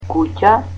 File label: "house", Macedonian